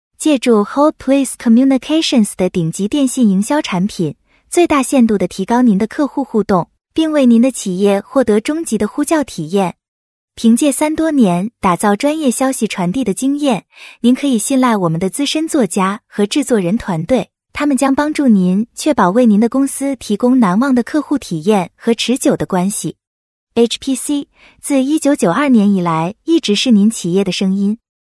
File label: Mandarin Female ai; Xiaoxiao